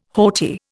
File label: "haughty", Indian